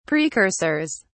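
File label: "precursors", British English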